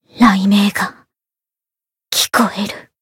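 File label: Voice win barely Japanese; eva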